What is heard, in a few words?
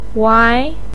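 "wai" second tone, Mandarin Chinese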